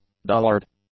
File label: "dullard", English